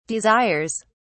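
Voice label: "desires", British English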